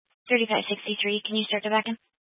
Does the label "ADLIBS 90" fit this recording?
No